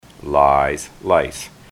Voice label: lies lice, ontario

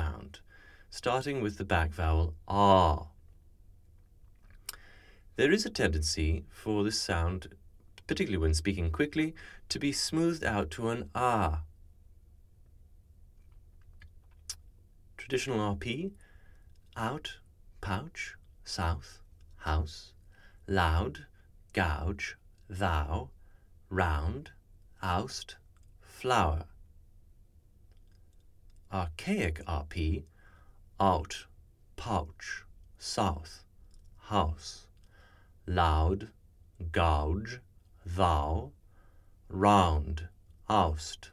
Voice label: Mouth, rp; speech; dialects

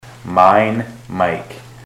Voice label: mine, ontario

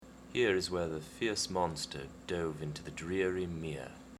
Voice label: here fierce dreary mere, RP; speech; dialects; Here is where the fierce monster dove into the dreary mere

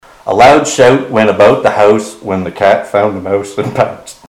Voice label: a loud shout, ontario; mouth set

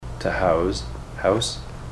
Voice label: to house house, ontario

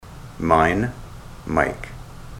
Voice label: mine, ontario

